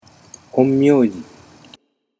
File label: "onmyoji", Japanese